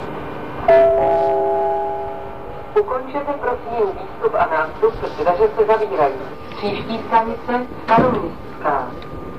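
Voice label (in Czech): příští, Vlaková hlášení, Pražské metro; Ukončete, prosím, výstup a nástup, dveře se zavírají. Příští stanice Staroměstská.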